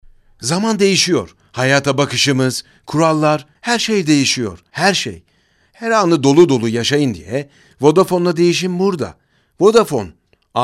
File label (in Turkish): REKLAM